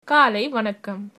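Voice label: good morning, Tamil, female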